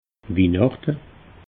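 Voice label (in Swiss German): "wihnachte", Bas Rhin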